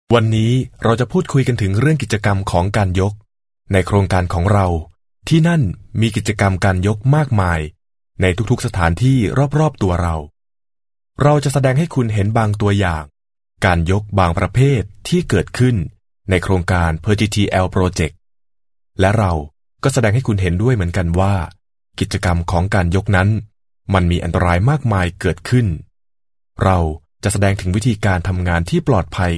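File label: Thai, male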